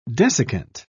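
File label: "desiccant", British English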